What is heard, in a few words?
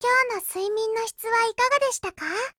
HELLO JP